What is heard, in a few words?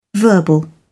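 "verbal", British English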